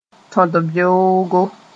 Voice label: "tõd-beoogo", Mooré; early in the morning